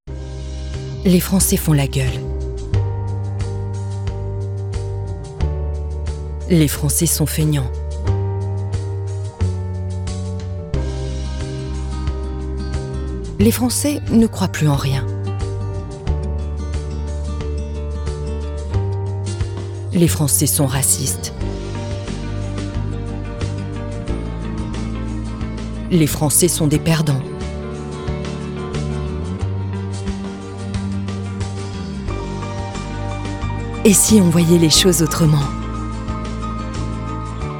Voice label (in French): Pub TV voix naturelle, voix feminine; TF1